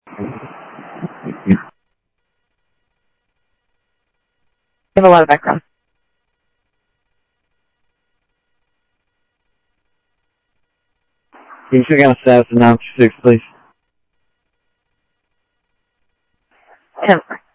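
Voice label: Sheriff East County, scanner audio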